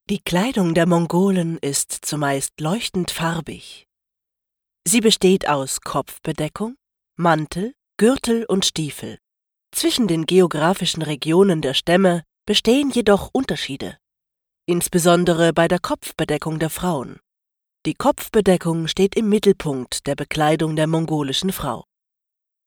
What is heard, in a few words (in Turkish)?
Almanca Kadin, seslendirme